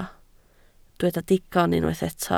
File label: "digon", Welsh